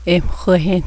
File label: "sweep", Cheyenne word